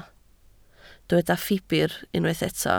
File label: "ffibr", Welsh